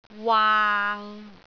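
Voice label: "waang6", Cantonese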